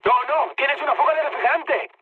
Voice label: damaged water, mood high, speech; War Thunder

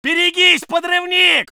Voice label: voice sov infantry

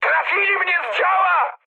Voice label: damaged aa mood high, speech pol; War Thunder

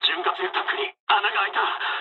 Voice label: damaged oil mood low, speech jp; War Thunder

